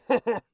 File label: amused, orc voice; Orc Voice Pack